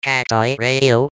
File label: voice cacti radio; SPS Intro